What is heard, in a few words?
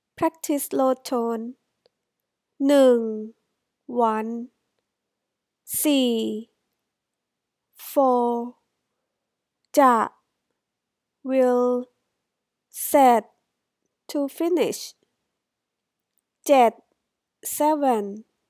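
low tone, Thai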